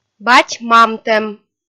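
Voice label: "бать-мамтӧм", Komi, female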